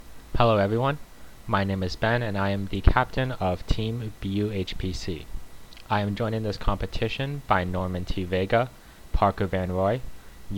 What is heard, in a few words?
Voiceover; ClusterComp 2020